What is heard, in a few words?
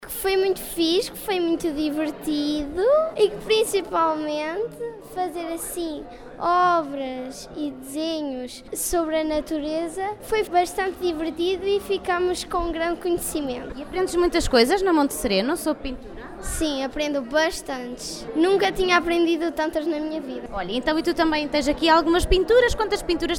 vox pop